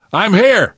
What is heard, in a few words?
scenario join, survivor voice; source engine; left 4 dead 2; namvet